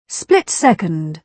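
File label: "split second", British English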